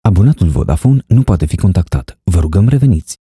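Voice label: romanian interactive voice response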